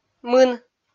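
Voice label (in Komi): "мын", Komi, female; kpv audio dict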